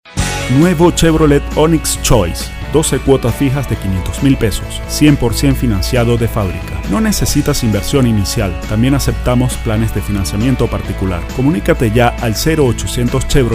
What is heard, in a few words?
spanish latin american commercial